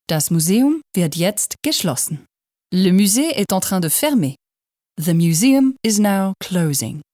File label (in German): MUSEUMSDURCHSAGE JETZT; NMBE